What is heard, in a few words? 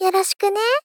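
Gentle, Join, "Yoroshiku ne", Japanese female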